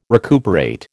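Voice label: "recuperate", American English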